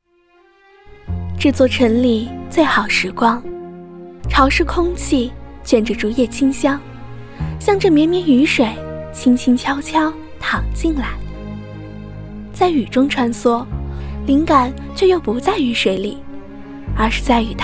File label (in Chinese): 女 旁白